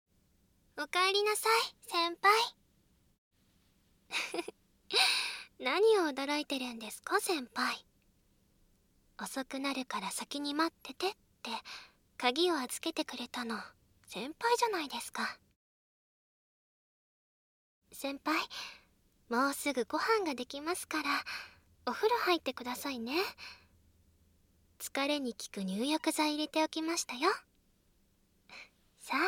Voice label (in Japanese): 声のみ ノイズ除去なし; すーぱーあまえたいむ